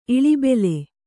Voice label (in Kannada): "ಇಳಿಬೆಲೆ", Kannada